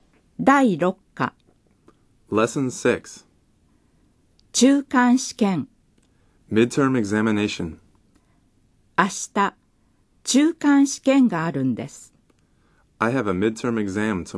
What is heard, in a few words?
Dialog